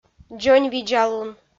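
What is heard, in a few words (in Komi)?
"дзоньвидзалун", Komi, female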